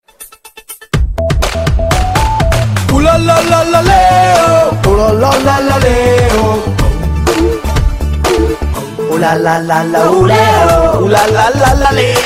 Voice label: Ad; Kingfisher; Ipl 3